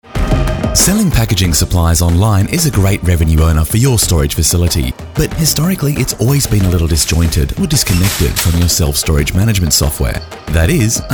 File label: voiceover sample; Brisbane Voiceover Recording